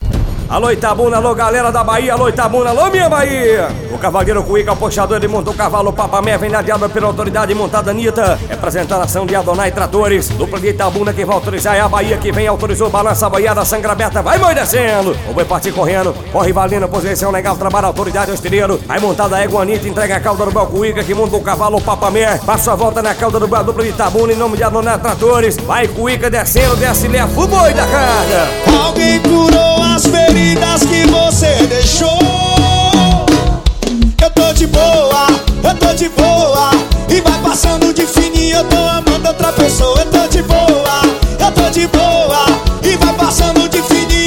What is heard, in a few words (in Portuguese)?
locutor